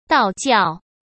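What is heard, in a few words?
"道教", Mandarin Chinese; chinese flashcards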